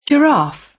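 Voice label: "giraffe", British; Flora and fauna, agriculture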